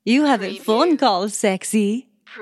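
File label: Female U Phone Call Sexy Accent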